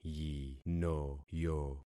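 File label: "yinoyo", concatenated syllables; Affixing experiment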